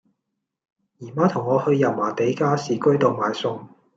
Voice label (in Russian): Голоса, Гонконгский